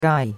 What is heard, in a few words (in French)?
"gai4", chinois; Cours-de-Chinois